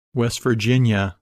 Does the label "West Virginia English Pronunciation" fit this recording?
Yes